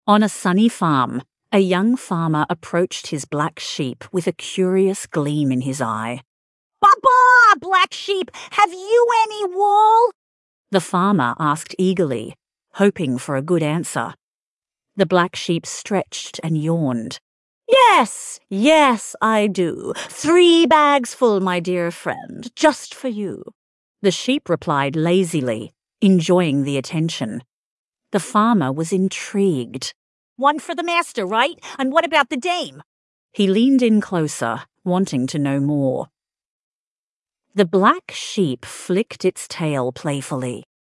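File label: female Australian story; Baa Baa Black Sheep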